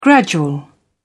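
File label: "gradual", English, British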